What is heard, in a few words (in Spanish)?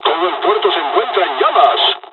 dialogs spanish; War Thunder